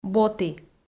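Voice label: "боті", Komi, female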